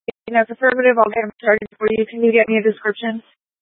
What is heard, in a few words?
Phx PD Fire Dispatch